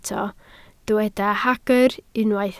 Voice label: "hagr", Welsh